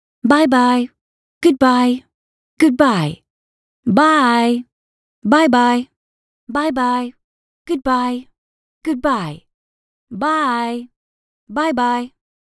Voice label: Female Saying Bye And Good Bye